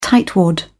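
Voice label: "tightwad", British English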